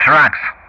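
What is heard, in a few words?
"trucks", English; Ka-50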